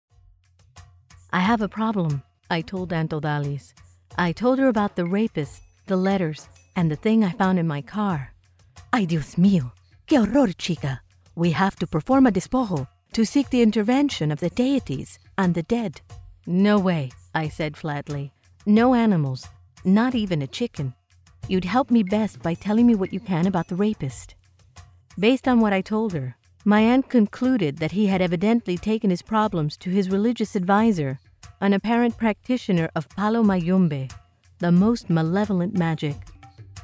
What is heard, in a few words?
Audiobook